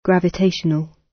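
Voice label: "gravitational", British; Space, the planets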